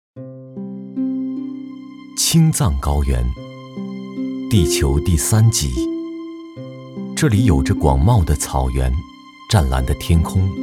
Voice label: 纪录片 自然科教, 男; 神秘的冰湖

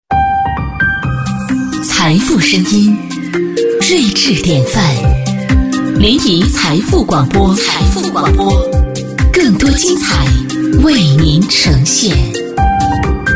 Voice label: 广告 片头片花 大气, 女国; 财富广播

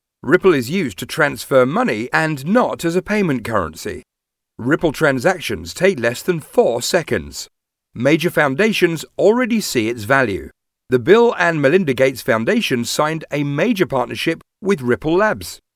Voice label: 外籍男英 外语 英式英语 稳重

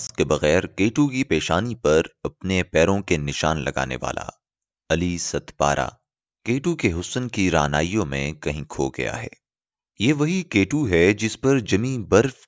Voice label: 乌尔都语男 外语 小语种 磁性

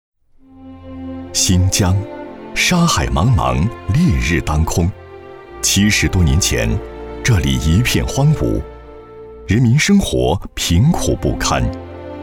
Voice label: 专题, 政府, 稳重; 哈密

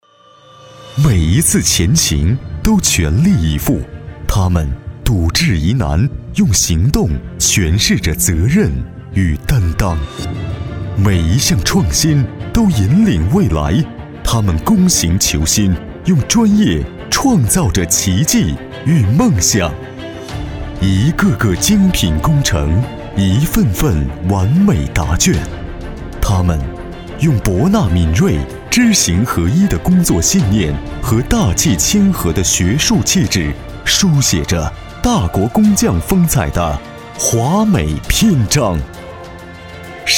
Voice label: 男 专题 浑厚; 建大研究院

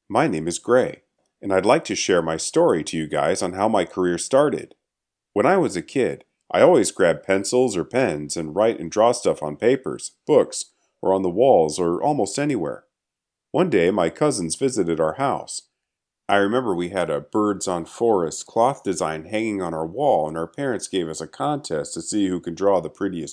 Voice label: 外籍男英 外语 美式英语 教学课件 每分120

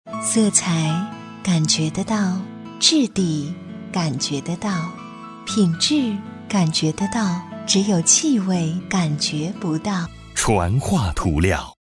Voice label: 广告 涂料 清新; 家居家电; 传化涂料央视二套广告

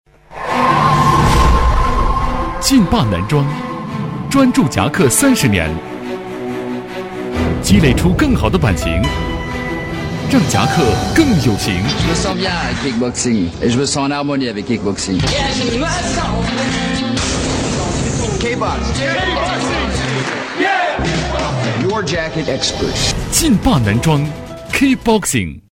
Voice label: 男国 广告 自然; 服装; 劲霸男装

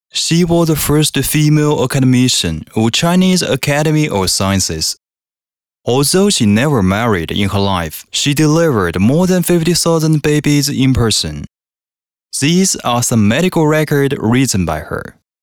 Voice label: 男英 外语 国内英语 人物旁白

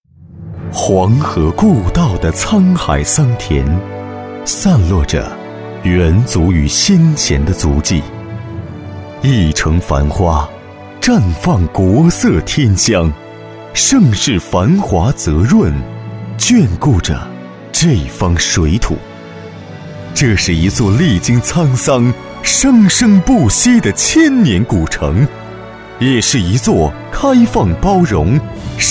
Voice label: 男国 宣传片 城市 抒情; 牡丹大会

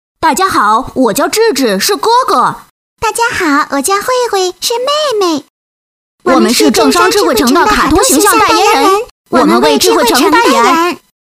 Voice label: 动画 童声 男女童

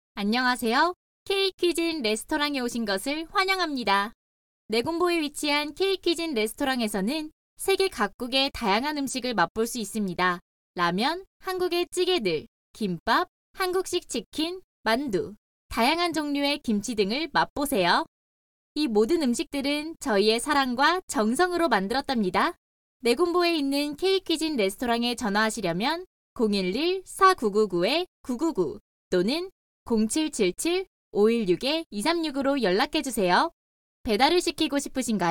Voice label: 韩语女 外语 小语种 年轻

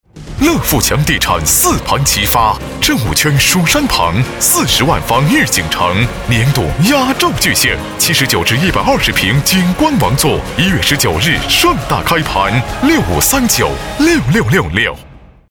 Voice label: 广告 地产 激情地产广告, 男国

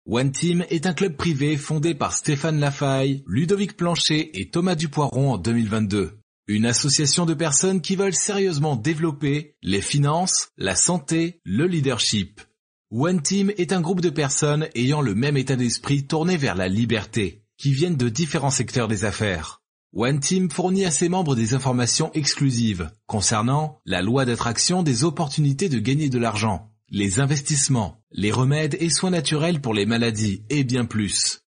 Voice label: 法语男 外语; 小语种

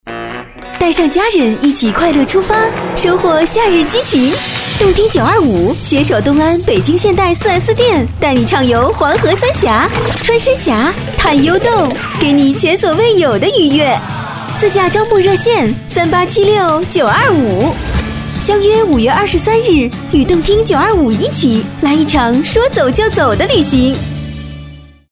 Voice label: 广告 促销 汽车 欢快; 现代汽车

